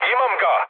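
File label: Serbian player killed enemy mood med, speech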